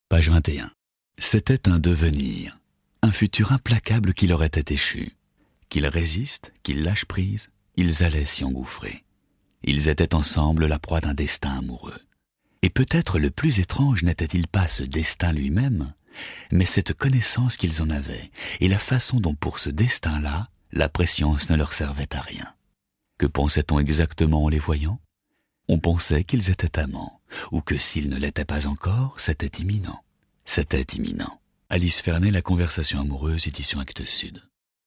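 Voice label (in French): PUB, voix; Acte Sud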